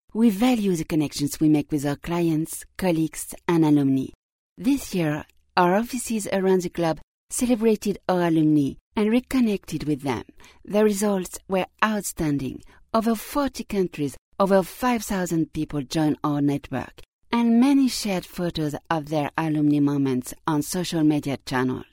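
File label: French, France, female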